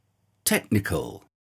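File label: "technical", English pronunciation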